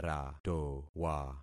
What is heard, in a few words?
"ratowa", concat syllables; Affixing experiment